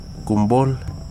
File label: "belly", Wahgi; lae unitech